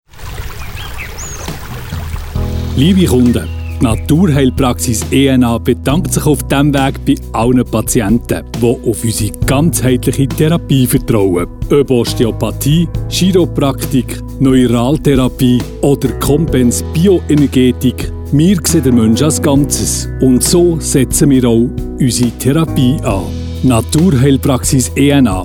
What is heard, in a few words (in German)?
Radio POS Sprecher